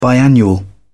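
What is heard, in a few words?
"biannual", British English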